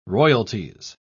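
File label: "royalties", British English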